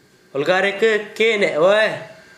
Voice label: lets go see others, Gta, Dialect: Hill; olga-re-kǝ kej nɛʔ-wɛ

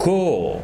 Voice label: "agua", Tsesungun

